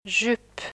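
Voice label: "jupe", French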